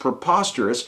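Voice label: "preposterous", US English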